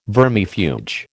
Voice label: "vermifuge", American